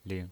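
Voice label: "ling", pinyin, Mandarin Chinese, neutral tone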